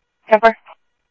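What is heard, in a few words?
police radio dispatch, Scottsdale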